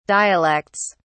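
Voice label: "dialects", British English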